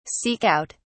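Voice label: "seek out", British English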